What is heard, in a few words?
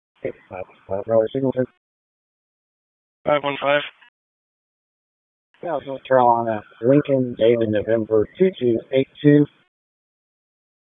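Police Southeast District Dispatch; Raleigh; wake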